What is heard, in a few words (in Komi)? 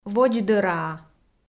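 "водздӧраа", Komi, female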